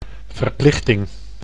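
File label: "verplichting", Dutch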